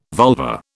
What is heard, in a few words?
"vulva", British